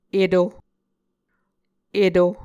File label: "eto", muscogee